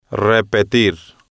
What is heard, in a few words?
"repetir", spanish